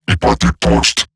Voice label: Attack Robot Large; Battle; Eximus; Eximus Corpus Robotic Large; en